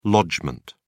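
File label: "lodgement", British English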